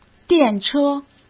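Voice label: "电车", Mandarin Chinese; hsk; chinese-flashcards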